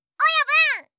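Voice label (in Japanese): "親分" Japanese; 呼びかけ系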